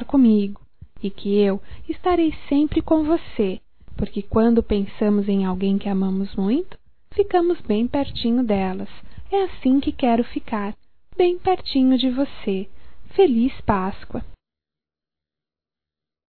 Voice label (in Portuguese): PASCOA PARA AFILHADOS, fem, Voz; Telemensagens 2017